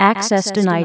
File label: access denied female